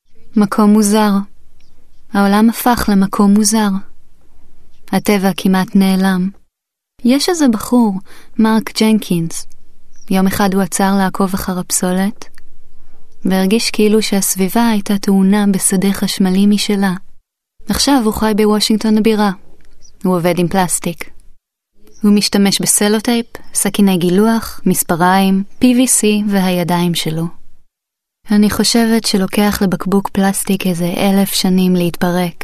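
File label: Hebrew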